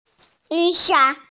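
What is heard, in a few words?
"yǔ sǎn", Mandarin Chinese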